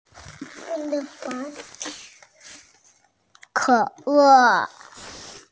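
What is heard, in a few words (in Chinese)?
"ke3ai4", Mandarin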